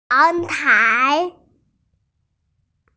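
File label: "yang2 tai2", Mandarin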